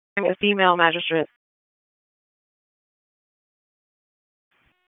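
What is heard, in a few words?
Raleigh Police Southeast District Dispatch; wake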